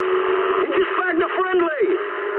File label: eng player killed ally mood high, speech eng